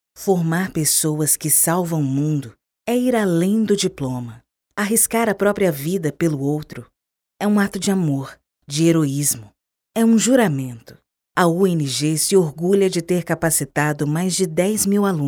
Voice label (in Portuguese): Locução Grave